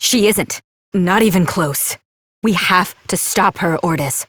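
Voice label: Voice, English; New War; Quarters; Operator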